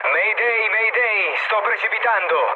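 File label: Italian aircraft destroyed mood low, speech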